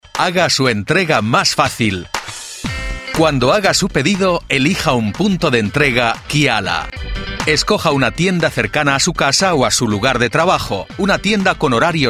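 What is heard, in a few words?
Male, Spanish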